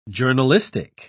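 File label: "journalistic", British English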